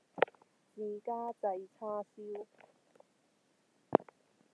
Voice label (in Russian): Гонконгский; commonvoice